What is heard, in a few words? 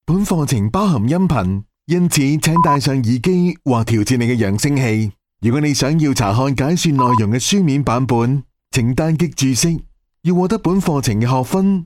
Cantonese male; Foreign language voice over